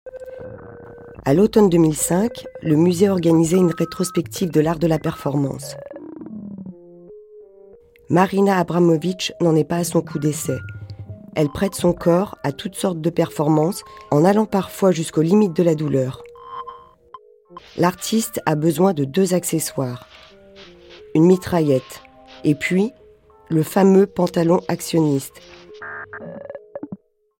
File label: French